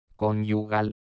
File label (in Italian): "conyugal", Fonetica spagnola; Y (o hie)